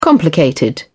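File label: "complicated", British English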